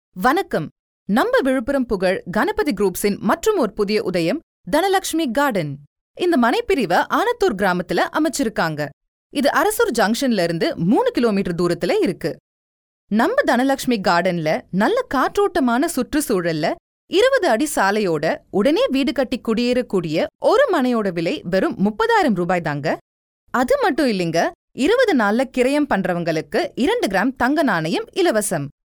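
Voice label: Tamil Sri Lanka female voice over, narrative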